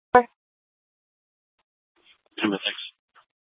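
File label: Scottsdale Police South Dispatch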